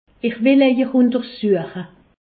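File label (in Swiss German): "untersueche", Alsace, Haut Rhin; je vais vous examiner; medecine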